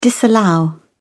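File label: "disallow", British English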